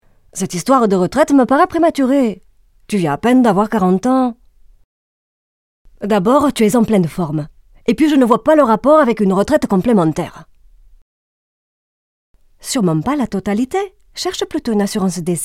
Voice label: Institutionnel Accent

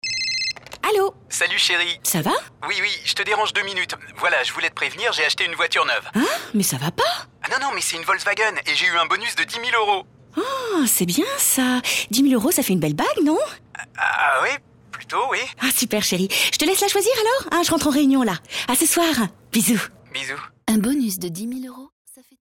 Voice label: SPOT RADIO; VOLKSWAGEN; bague GAMME ELECTRIQUE

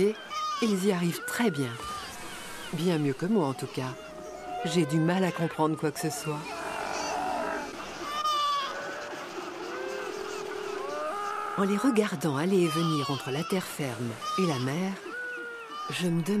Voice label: conte pour enfants livre audio le cri du kakapo; Milan Jeunesse

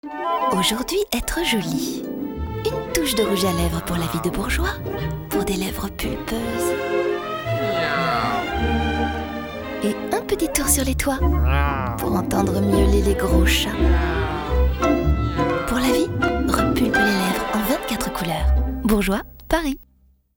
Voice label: Voix off Fraiche; Bourgeois

